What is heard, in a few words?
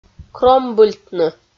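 "кромбыльтны", Komi, female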